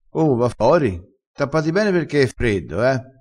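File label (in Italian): "tappassi", vocabolario dialettale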